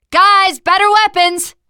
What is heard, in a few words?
spot weapons, teengirl, voice